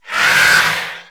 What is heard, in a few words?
cheer; glub; simply raptor